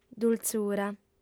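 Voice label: "dultzura", Sardinian, female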